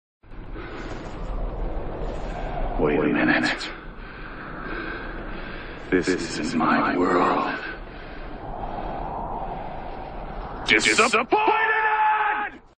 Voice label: disappointed